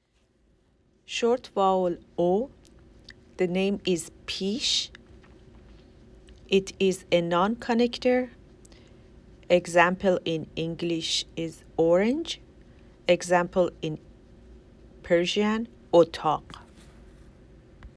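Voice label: short vowel o, Persian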